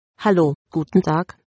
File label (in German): hello, de